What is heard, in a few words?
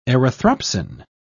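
"erythropsin", British English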